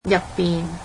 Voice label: "inside", Cantonese